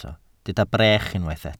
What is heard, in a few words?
"brech", welsh